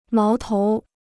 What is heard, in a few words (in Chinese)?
"矛头", Chinese